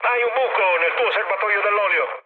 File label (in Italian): damaged oil, mood med, speech it; War Thunder